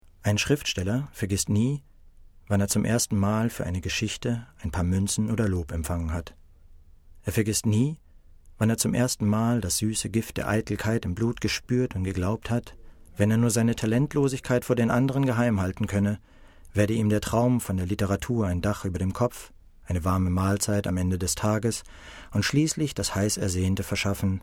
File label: narration, German, male